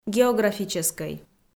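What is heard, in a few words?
"географичексӧй", Komi, female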